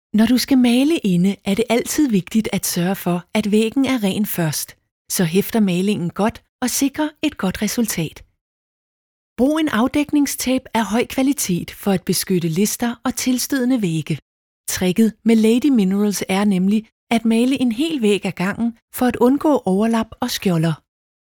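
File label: Danish informativ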